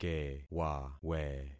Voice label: "kewawe", concat syllables; Affixing experiment